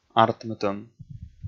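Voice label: "артмытӧм", Komi, male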